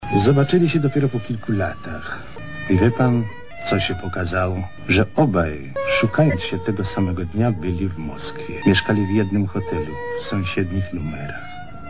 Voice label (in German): Hörprobe des polnischen Schauspielers; Sounddatei als Stimmbeispiel (keine Synchronarbeit!); Lex Barker